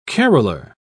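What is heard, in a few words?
"caroler", British English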